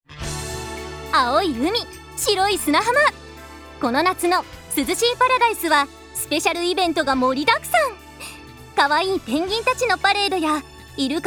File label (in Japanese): ナレーション